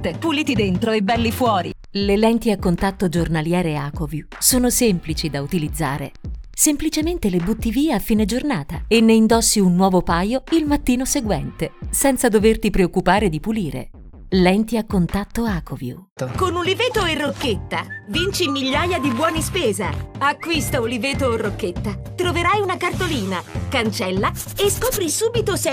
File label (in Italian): Promo Voce; voiceover